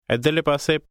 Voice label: thisside phrase, punjabi; English: this side